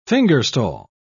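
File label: "fingerstall", British English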